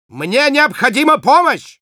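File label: Spy help me, russian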